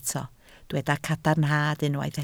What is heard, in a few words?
"cadarnhad", Welsh